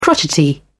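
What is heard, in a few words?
"crotchety", British English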